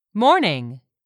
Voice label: "MORNING", english; 3000 words